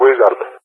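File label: "Wizard", English, GREECE; CallSign; Allied Flight; DCS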